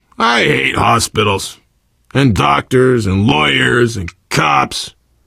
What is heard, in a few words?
world hospital, player survivor voice biker; source engine; left 4 dead 2